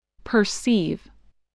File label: "perceive", English, voice